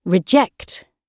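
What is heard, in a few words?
"reject", British English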